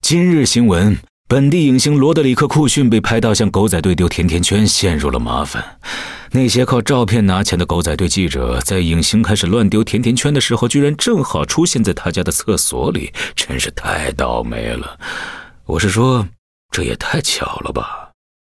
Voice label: Mandarin; 哈里森（双点校园）; 开场白